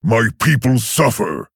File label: Male Orc Play